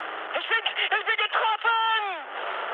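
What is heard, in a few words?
German aircraft damaged mood high, speech; War Thunder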